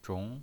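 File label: "zhong" Mandarin Chinese pinyin voice, second tone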